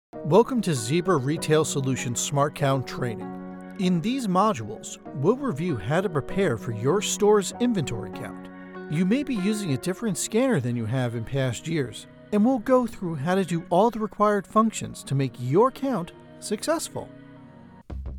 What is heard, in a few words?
Narration